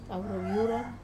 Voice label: "kavaru viurar", Wamesa; red bean

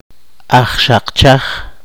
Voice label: poqomchi; gusano de fuego

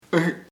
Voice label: "beautiful hermosa", chamacoco

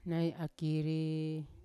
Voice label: "nai akiri" right, Yawa

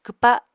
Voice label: "kwpa", Apatani

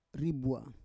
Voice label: "ribua", Roon

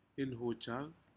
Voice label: "inhujac", Aneityum; shellfish

